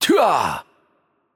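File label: voice over, Romanian; change player; bloom minigame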